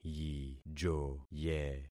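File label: "yijoye", concatenated syllables; Affixing experiment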